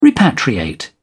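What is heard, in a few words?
"repatriate", British English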